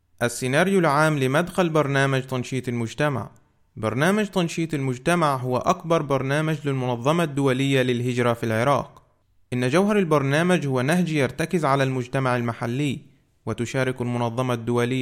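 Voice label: Arabic, male; lektoring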